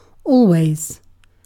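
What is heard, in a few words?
"always", British English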